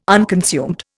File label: "unconsumed", Indian